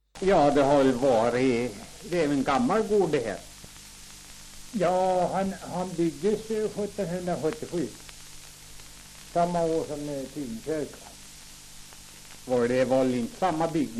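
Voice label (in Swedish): Tuna Medelpad, dialekter; Folkminnen; Svenska sagor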